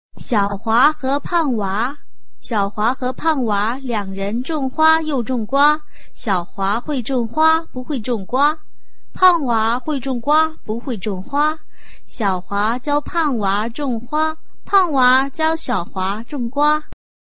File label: chinese tongue twister